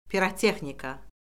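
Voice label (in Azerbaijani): "pirotexnika", Azerbaijani; Orfoqrafiya Lüğəti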